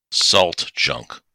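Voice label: "salt junk", English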